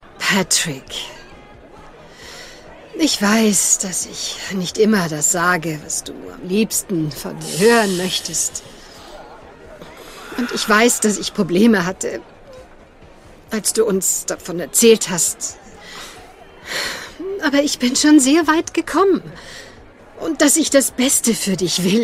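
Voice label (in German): Schauspiel, Freundlich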